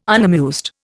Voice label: "unamused", Indian

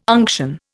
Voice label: "unction", Indian

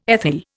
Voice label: "ethyl", Indian

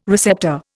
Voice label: "receptor", Indian

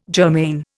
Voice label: "germane", Indian

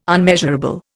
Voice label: "unmeasurable", Indian

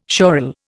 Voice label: "schorl", Indian

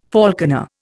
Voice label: "falconer", Indian

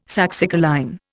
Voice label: "saxicoline", Indian

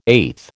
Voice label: "eighth", American

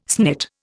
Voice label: "snit", Indian

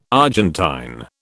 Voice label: "Argentine", British

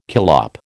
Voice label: "collop", American

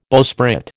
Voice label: "bowsprit", American

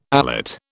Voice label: "alate", British

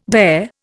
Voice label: "dare", Indian

